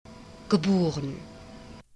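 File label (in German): "geboren", German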